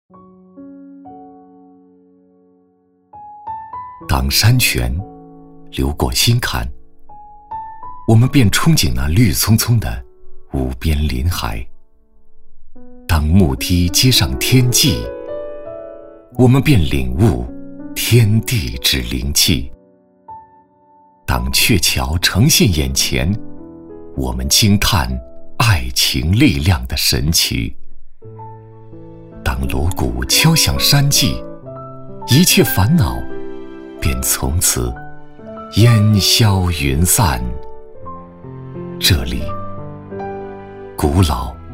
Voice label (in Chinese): 男 专题 旅游景区（深情 缓慢）